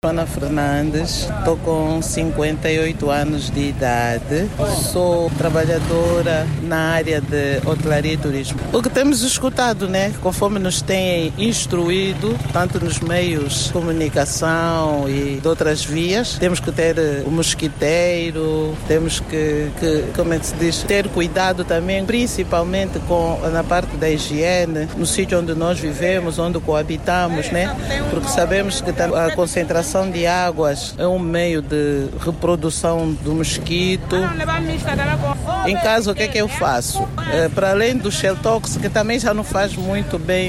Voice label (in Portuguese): VOX POP; malaria continua a ser a principal causa de morte em angola